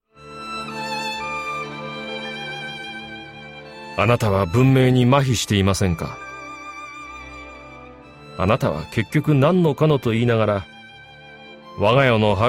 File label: Narration